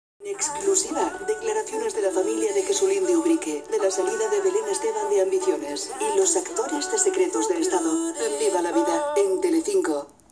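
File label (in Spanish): generico España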